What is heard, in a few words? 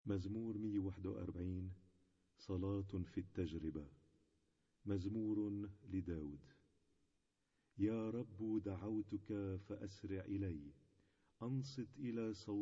Arabic Psalm Zaboor